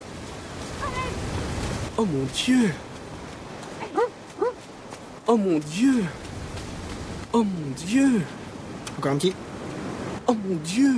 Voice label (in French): oh mon dieu rrrrrr